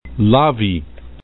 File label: "lavi", esperanto